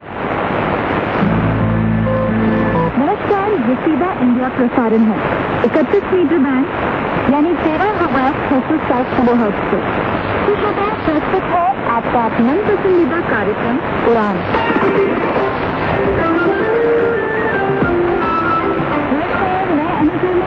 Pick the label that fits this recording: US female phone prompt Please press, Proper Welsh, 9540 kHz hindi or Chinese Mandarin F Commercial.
9540 kHz hindi